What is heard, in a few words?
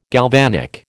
"galvanic", American